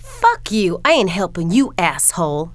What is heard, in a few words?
"asshole", female; kingpin; yolanda